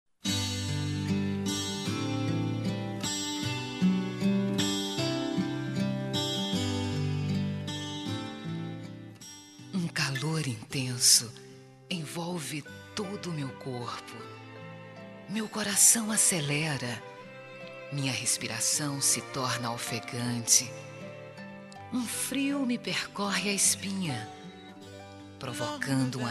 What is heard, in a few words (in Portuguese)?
Telemensagem Românticas Eróticas, Fem